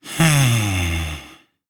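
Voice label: Barks Bird, English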